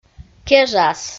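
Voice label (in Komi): "кежас", Komi, female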